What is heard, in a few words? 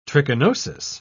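"trichinosis", British English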